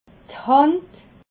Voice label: "hand", alsace

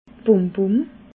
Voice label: "bombom", alsace

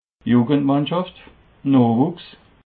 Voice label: "jungendmannschaft", alsace

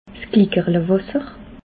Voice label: "glickerlewasser", alsace

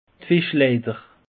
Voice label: "fischleiter", alsace; peche

